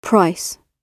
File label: "price", English